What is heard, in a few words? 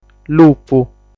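"Lupu", Sardinian word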